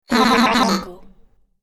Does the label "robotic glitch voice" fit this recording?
Yes